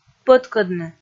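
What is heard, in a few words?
"пӧткӧдны", Komi, female; kpv; audio dict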